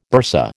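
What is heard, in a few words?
"bursa", American English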